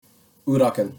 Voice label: "Uraken" Japanese